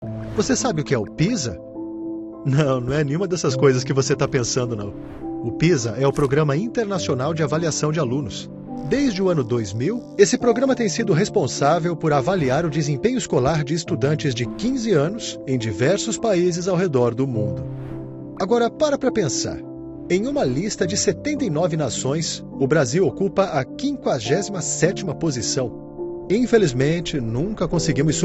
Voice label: portuguese brazilian narration